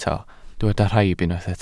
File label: "rhaib", welsh